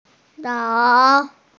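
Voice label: "nao3", Mandarin Chinese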